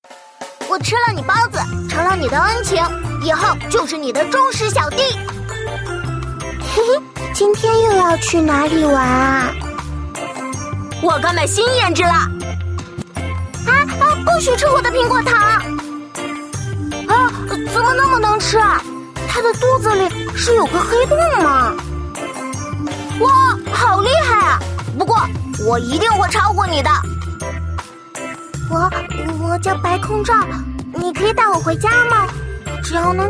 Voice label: luoli zhengtai

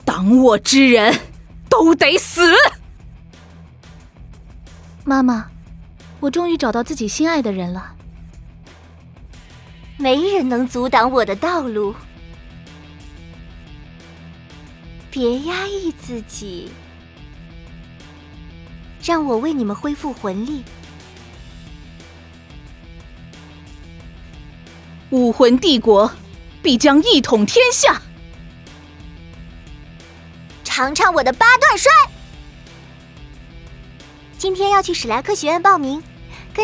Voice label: 女

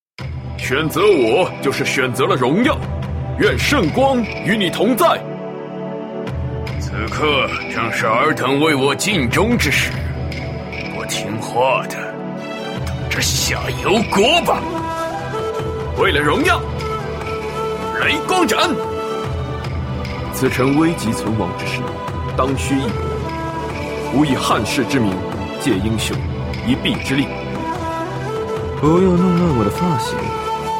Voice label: zhongqingnian

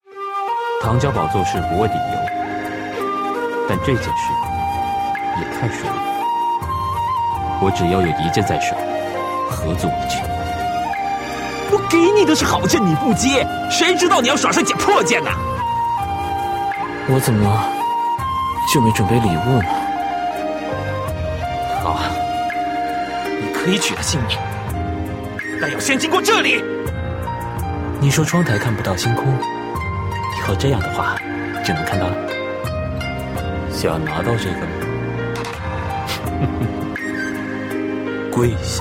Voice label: qingnian

